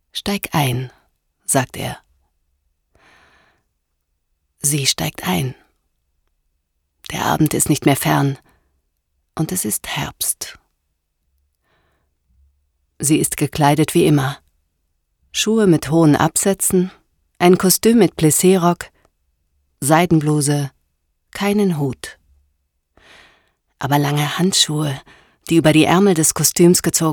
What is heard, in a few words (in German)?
Lesung kurz